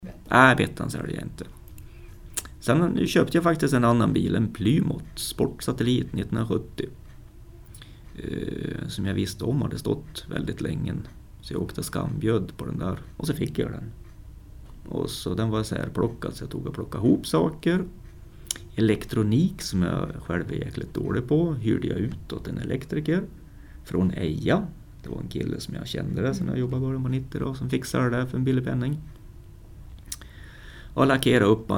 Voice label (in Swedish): 45-åring Edsbyn, dialekter